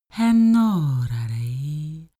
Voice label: female talk elfish

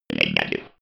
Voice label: robot voice negative

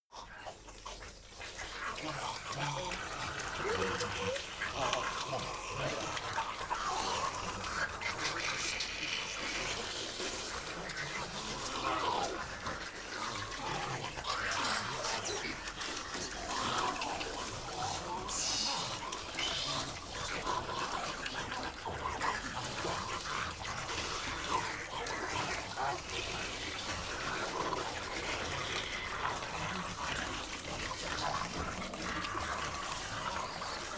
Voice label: monster walla gibberish; kreaturen

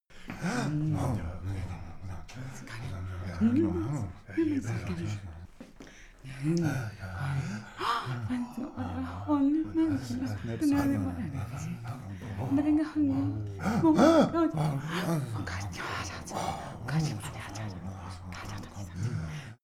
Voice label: small group whisper walla

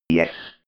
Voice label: robot voice yes

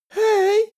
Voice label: boy shy "hey"

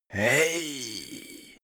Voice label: male creepy hey

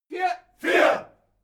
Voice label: military shout german "four"

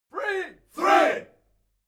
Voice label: military shout english "three"

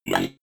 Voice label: robot voice one fx

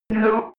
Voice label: robot voice no fx

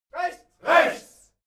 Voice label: military shout german right

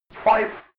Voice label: robot voice five fx

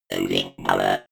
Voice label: robot voice loosing power fx

